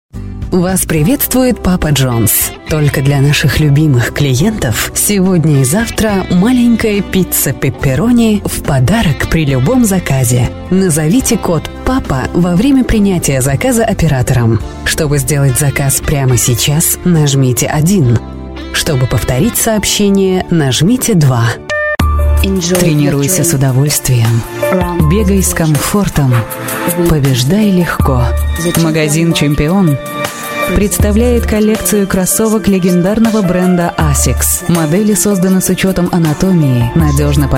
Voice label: russian voice over female commercial